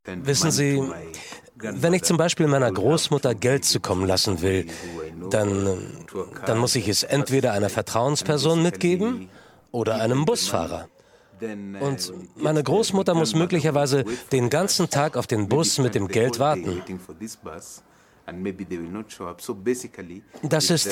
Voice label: Kenia Geldtransfer Doku

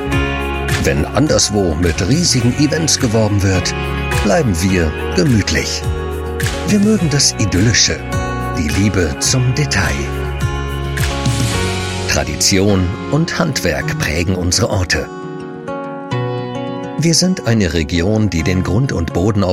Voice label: Imagefilm Landkreis freundlich einladend erklaerend; LOFT VOICES

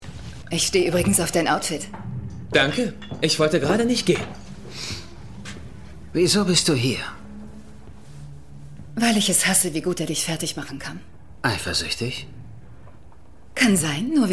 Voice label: synchronstimme; sarah chalk

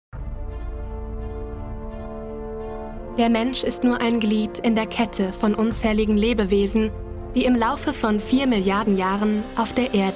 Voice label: Dokumentation

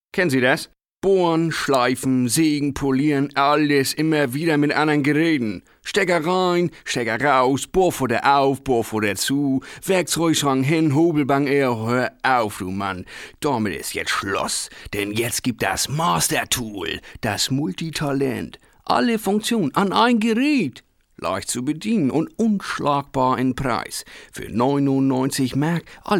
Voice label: dialekt

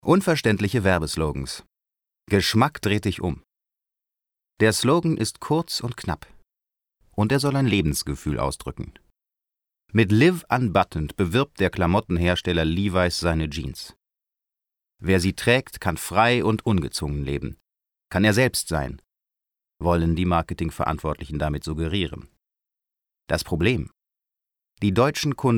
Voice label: doku

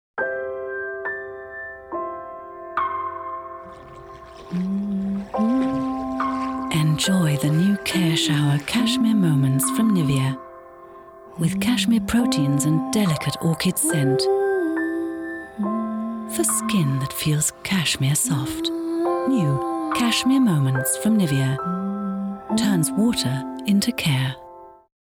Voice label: uk englisch

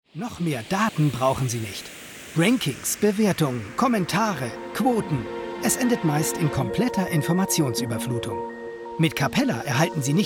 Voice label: datenflut corporate film; LOFT VOICES

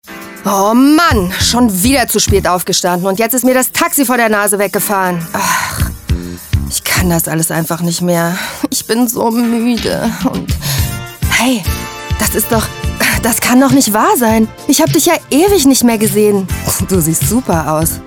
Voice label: RADIO, Commercial (Werbung), Scene, German

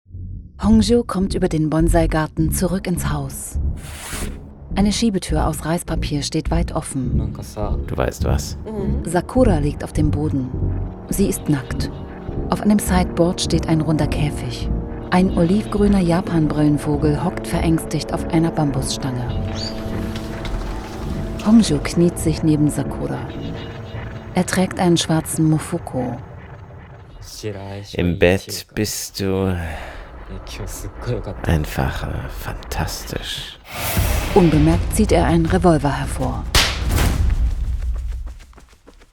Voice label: Audiodeskription; LOFT VOICES

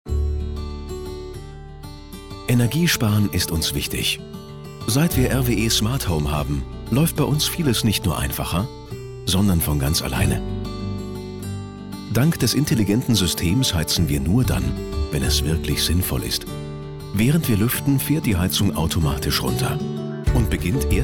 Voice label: Werbung Casual Smarthome; RWE

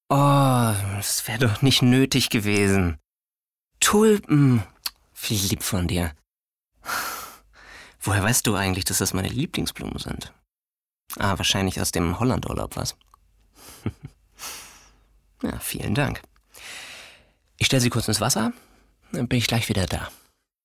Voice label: CASTING Schauspiel; der Hörverlag / hr2 Kultur - Der geheimnisvolle Briefschreiber - Frühe Erzählungen, Anfang

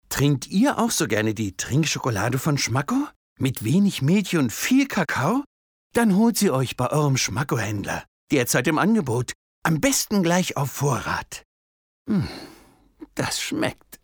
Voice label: werblich

